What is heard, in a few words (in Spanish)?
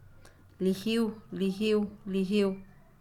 sonido, Pame; Percepciónsensorial; INALI